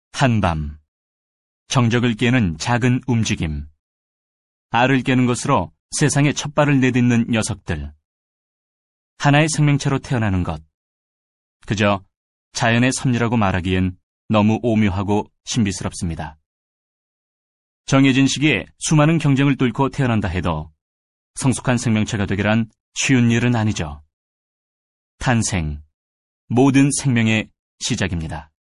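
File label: Korean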